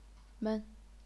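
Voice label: "men", neutral tone, chinese pinyin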